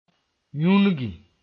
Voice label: "yũnugi", moore